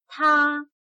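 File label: "它" Chinese, Tā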